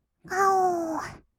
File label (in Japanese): "がおー", kawaii voice; ASMR; 色々なワンショット